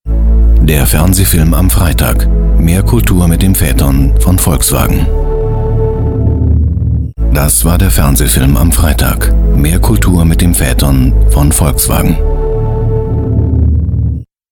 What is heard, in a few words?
tvc; vw patronat